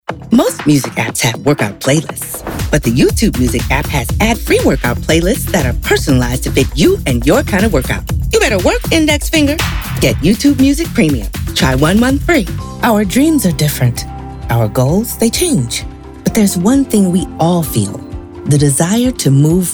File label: Commercial